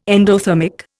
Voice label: "endothermic", Indian

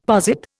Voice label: "posit", Indian; Dictionary

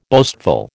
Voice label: "boastful", American

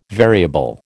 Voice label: "variable", American

